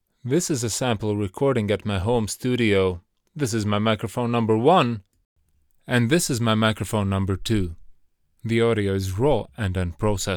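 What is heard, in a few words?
Latvian, Accented English; GoLocalise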